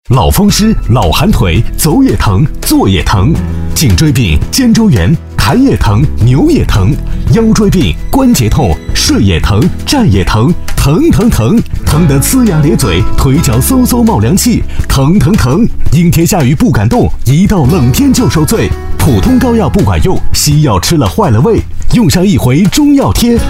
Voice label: 男 广告 促销 成熟

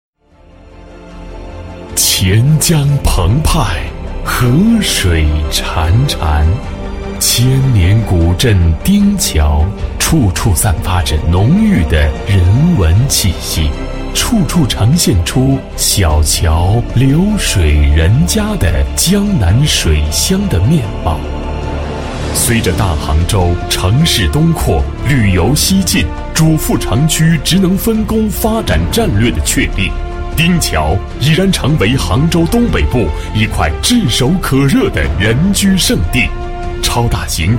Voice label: 男 宣传片 大气; 城市; 大杭州城市介绍

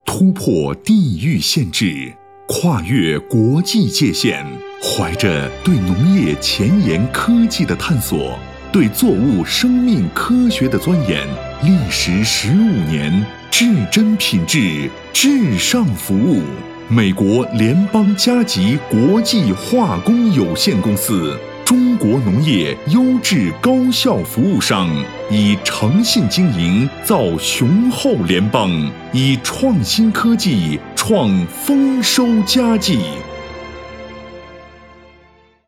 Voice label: 男国 宣传片 企业 成熟; 农业化肥公司

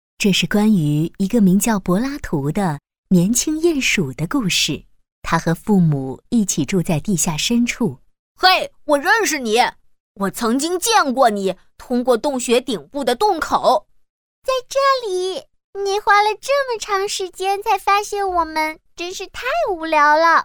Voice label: 动画 角色 多角色 妈妈 男孩 女孩; 柏拉图的故事多角色妈妈男孩女孩

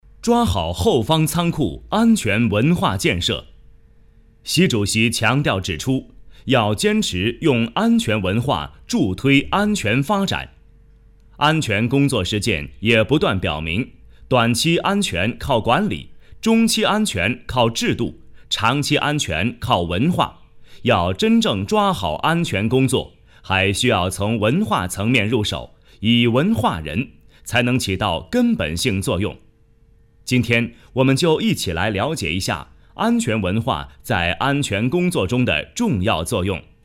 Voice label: 多媒体 培训课件 安全教育微课, 男

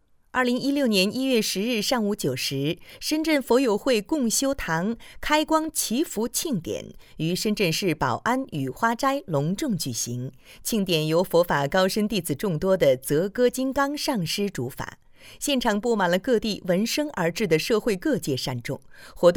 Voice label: 女国 专题 新闻 深圳佛教新闻

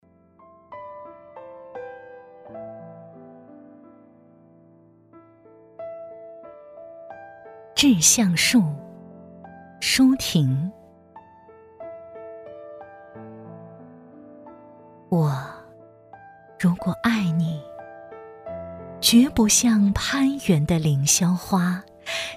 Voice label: 朗诵, 女; 致橡树

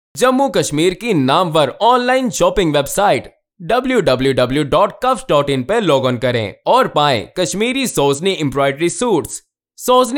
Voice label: 乌尔都语男 外语 小语种

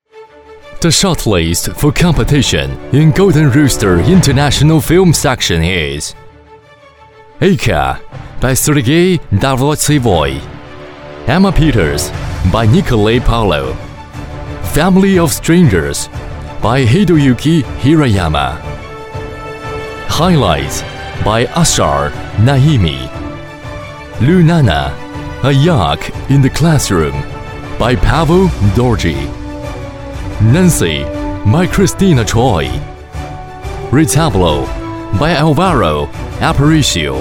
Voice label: 男英 专题 颁奖 英文颁奖; 英语; 金鸡奖英文颁奖

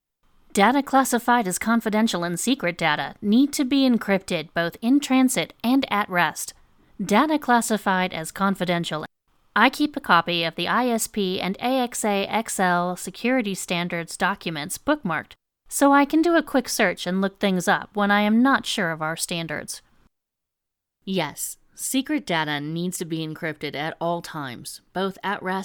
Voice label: 外籍女英 美式英语 每分200

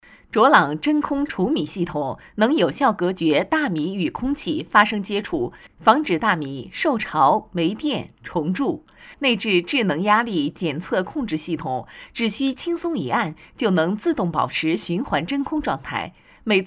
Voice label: 多媒体 产品演示 米桶

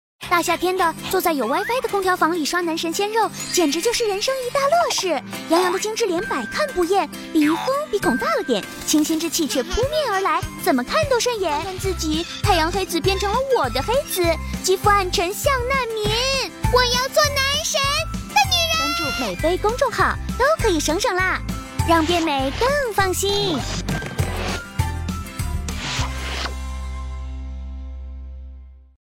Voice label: 女 宣传片 病毒, 浑厚大气女声; 美呗

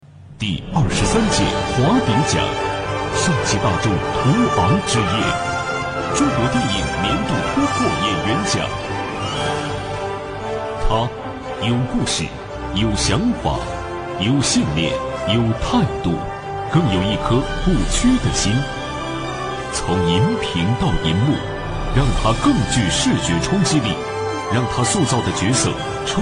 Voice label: 专题 颁奖 颁奖典礼解说, 男国; 第23届华鼎奖电影节颁奖典礼解说

